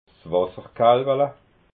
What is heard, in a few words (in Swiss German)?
"wasserkalwala", alsace